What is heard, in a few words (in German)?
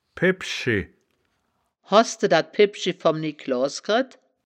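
"pebschi", wittlicher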